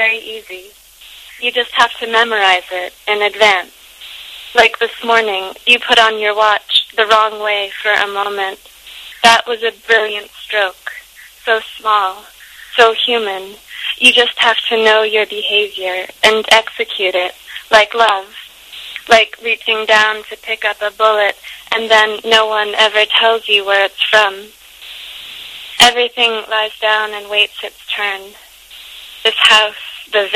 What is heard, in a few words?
poem